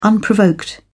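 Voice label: "unprovoked", British English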